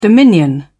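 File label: "dominion", British English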